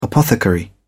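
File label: "apothecary", British English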